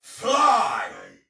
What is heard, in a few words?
Shoot Arrow, Soldier Male; Dialog; Barks; Battle; Sinecure